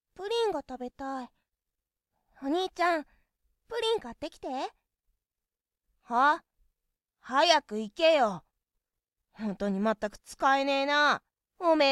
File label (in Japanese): ヤンデレ妹と着信電話, ASMR; スピンオフ作品集